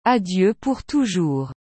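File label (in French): "Adieu pour toujours", French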